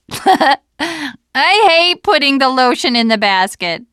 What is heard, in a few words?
second saferoom, teengirl, voice